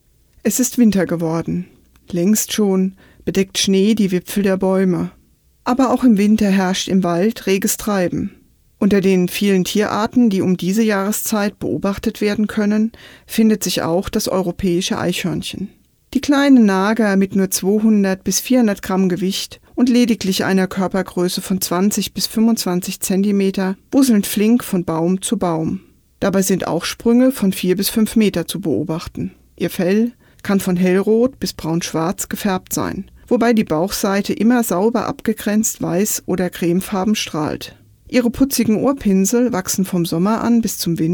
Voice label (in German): Doku cold